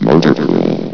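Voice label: "motorpool", robotic voice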